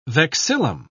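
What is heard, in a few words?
"vexillum", British English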